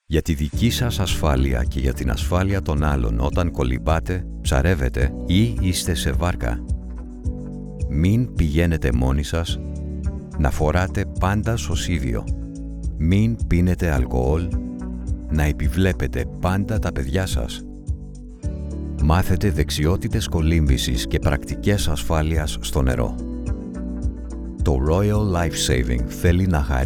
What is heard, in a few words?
Greek Radio Ad